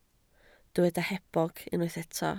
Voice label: "hebog", Welsh

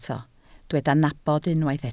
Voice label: "nabod", Welsh

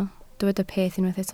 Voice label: "peth", Welsh

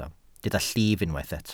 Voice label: "llif", Welsh

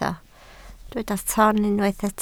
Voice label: "ton", Welsh

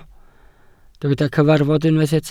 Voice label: "cyfarfod" Welsh

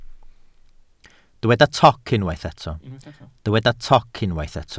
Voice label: "toc", Welsh

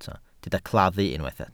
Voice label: "claddu", Welsh

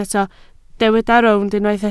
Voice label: "rownd", Welsh

